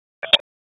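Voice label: Raleigh Police Special Operations Tactical, p25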